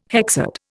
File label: "hexad", Indian